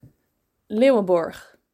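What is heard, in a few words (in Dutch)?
"Leeuwenborg", Dutch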